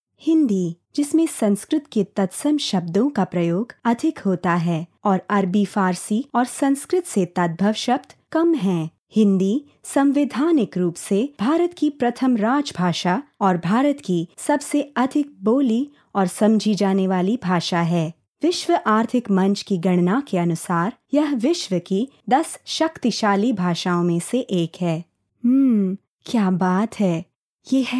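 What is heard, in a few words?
Documentary Hindi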